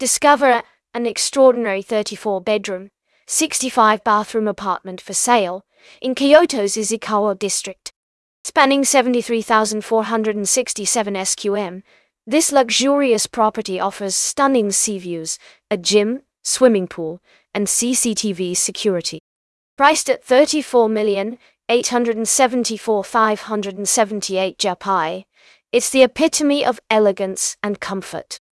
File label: narration audio